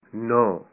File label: "9", Punjabi